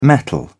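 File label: "mettle", British English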